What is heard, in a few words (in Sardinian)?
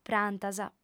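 "prantas", female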